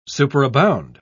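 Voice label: "superabound", English, uk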